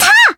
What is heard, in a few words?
Voice attack jp